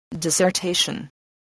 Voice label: "dissertation", spelling